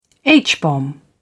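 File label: "h-bomb", British English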